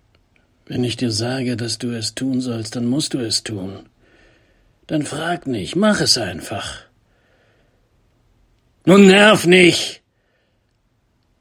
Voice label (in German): Enerviert